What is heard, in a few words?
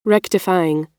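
"rectifying", American English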